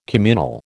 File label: "communal", American